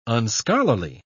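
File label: "unscholarly", English, British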